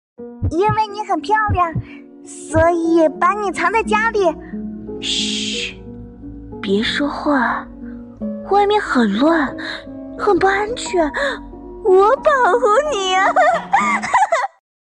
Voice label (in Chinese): 角色病娇